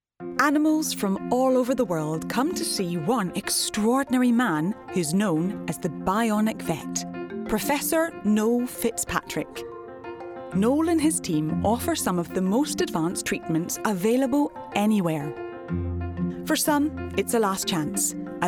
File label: Documentary